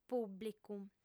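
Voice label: "pùblicu", Sardinian, female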